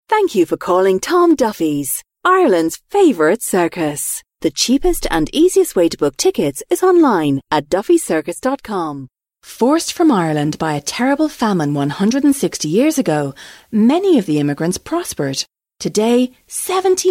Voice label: 40s Female Irish